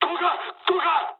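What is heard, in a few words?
bailout mood high, speech japanese; War Thunder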